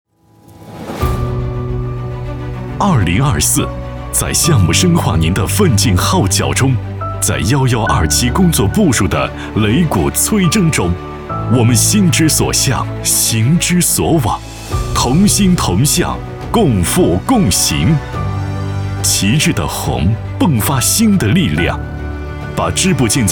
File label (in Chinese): 男 年终总结